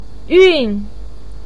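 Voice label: "yun4", Mandarin Chinese pinyin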